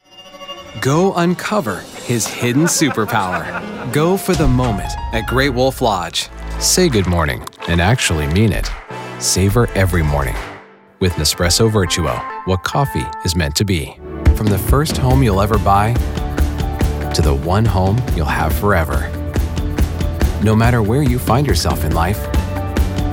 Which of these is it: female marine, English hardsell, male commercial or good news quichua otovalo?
male commercial